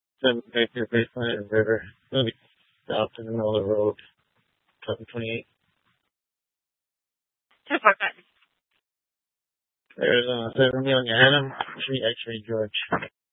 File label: Tempe PD, scanner; Rgnl Wireless Co-op (RWC)